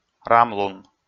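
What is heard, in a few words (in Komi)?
"рамлун", Komi, male; audio dict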